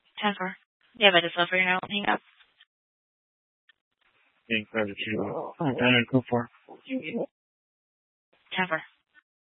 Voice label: Tempe PD, scanner; A04 South

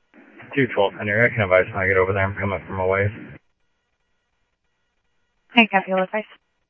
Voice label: Phoenix PD, scanner audio

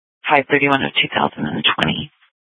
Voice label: Sheriff, scanner; Shrf Lakes

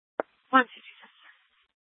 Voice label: Sheriffs Office, scanner

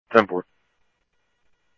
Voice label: Police, scanner audio trunk; H-Scottsdale

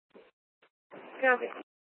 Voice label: Court Security Dispatch, scanner

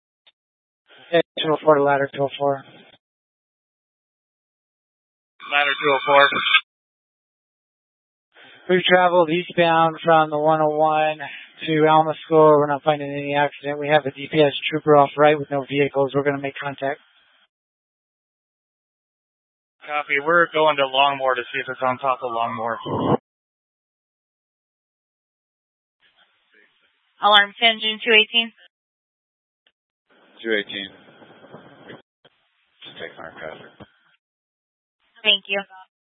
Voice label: Fire Departments Mesa Simulcast; TOPAZ

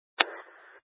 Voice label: Light Rail Emergency, scanner

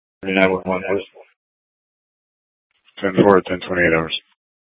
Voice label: PD Dispatch

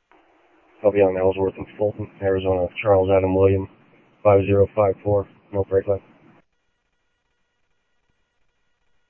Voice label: scanner audio trunk

